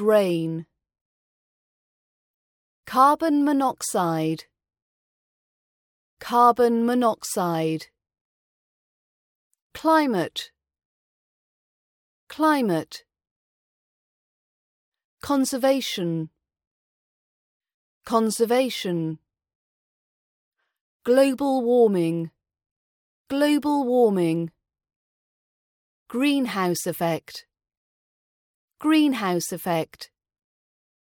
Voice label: environment vocab rp british accent pronunciation practice